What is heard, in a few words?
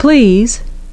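"please", English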